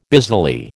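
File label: "busily", American English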